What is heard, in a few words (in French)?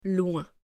"loin" French